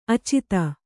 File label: "ಆಚದು", Kannada